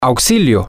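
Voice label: help, spanish, male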